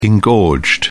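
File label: "engorged", British English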